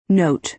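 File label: "note", American English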